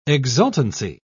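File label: "exultancy", British English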